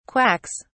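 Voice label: "quacks", British English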